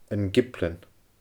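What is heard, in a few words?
"An Giblean", Scottish Gaelic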